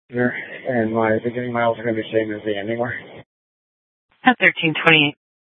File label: Sheriff, scanner P25